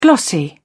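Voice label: "glossy", English, British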